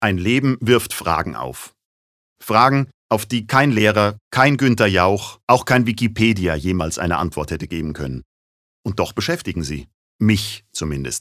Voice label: Schauspiel Dynamisch

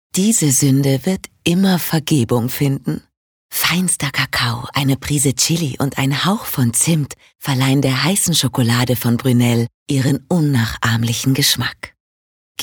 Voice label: Freundlich

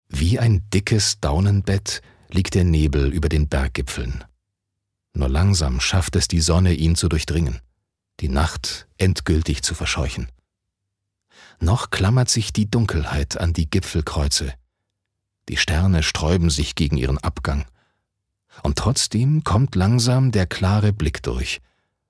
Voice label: Schauspiel Variabel

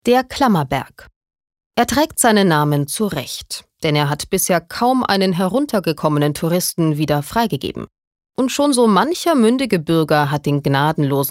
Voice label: Kommentar Neutral

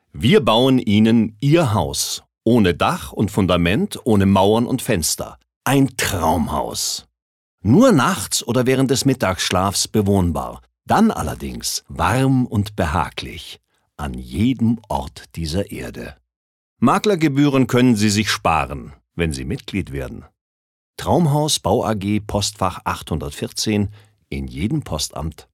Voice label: Werbung Freundlich

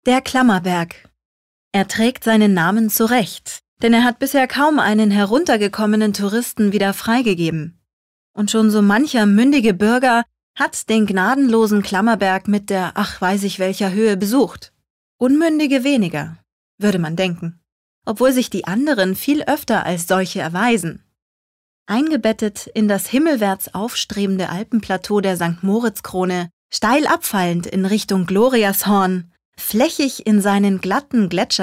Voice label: Kommentar, Neutral

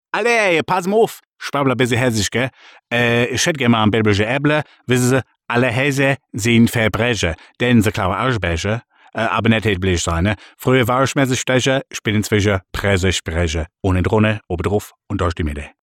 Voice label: Dialekt Hessisch

